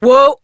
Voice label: Horse Stop Adult; Duviri